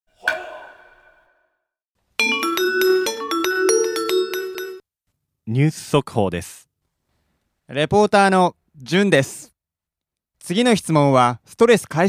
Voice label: dialog; JapanesePod101; Beginner Season 1